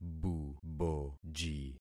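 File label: "buboji", concatenated syllables; Affixing experiment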